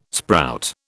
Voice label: "sprout", British